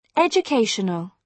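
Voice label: "educational", British English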